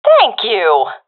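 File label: thankful, professional female; vo; agents; Source Model Packs; Counter Strike Model Pack; CS2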